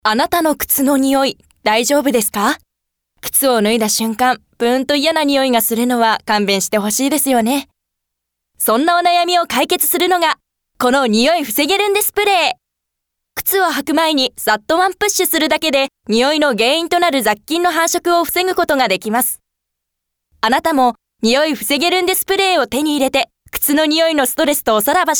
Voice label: narration